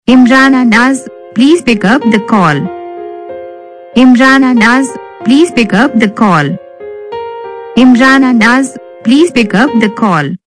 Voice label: pickup call saying, Ringtones; Your Name Ringtones A To Z Names